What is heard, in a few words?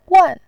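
"wan", Mandarin Chinese, tone 4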